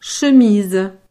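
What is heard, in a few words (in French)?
"chemise", French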